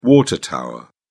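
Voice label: "water tower", British English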